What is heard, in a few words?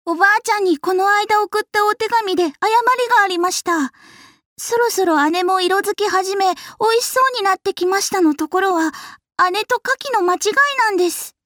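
seikaku female dojikko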